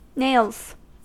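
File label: "nails", English, American accent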